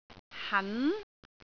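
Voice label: "han2", Cantonese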